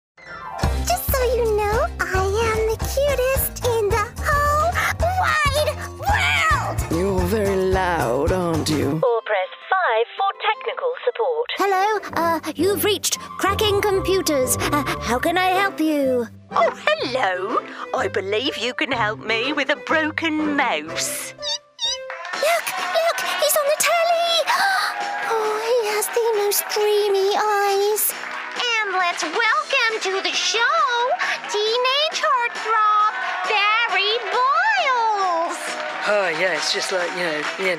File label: cartoon and character voices